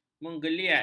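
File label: "mengeliat", Malay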